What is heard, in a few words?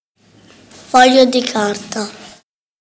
"papier", Italian; classroom